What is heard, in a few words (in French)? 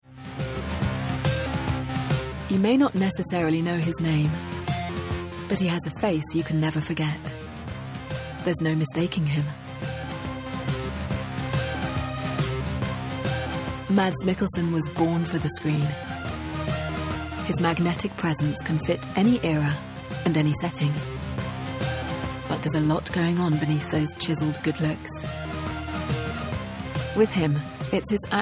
ENG Documentaire; Mads Mikkelsen